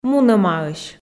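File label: "мунӧмаӧсь", Komi, female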